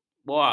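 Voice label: "boa", Malay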